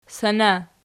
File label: "sana", Archi